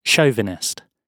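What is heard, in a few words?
"chauvinist" British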